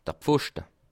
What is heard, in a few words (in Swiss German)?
"pfoschte", Alsace